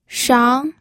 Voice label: "shang2", pinyin

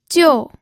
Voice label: "jiu4", pinyin

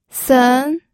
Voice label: "sen2", pinyin

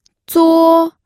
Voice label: "zuo1", pinyin

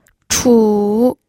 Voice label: "chu3", pinyin

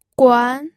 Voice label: "guan2", pinyin audio

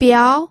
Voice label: "biao2", pinyin

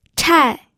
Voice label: "chai4", pinyin

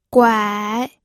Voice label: "guai3", pinyin audio

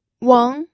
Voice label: "weng2", pinyin

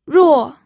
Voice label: "ruo4", pinyin

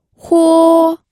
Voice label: "huo1", pinyin audio

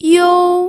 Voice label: "you1", pinyin

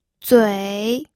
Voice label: "zui3", pinyin

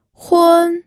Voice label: "hun1", pinyin audio

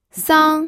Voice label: "sang1", pinyin

